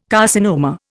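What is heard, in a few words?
"carcinoma", Indian English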